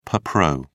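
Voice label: "per pro", British English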